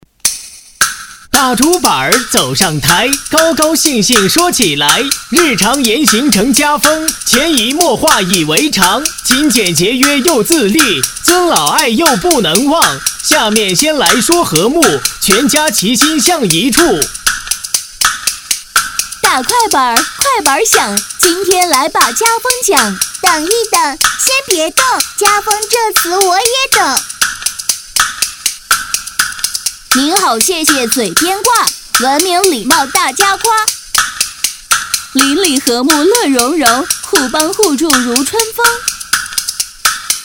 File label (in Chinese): 男 戏曲 快板 赞家风